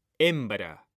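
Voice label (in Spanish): "hembra", Spanish, male; mekanta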